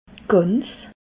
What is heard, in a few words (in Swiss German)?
"gans", Alsace